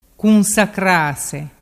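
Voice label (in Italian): "cunsacrase", Vernazzese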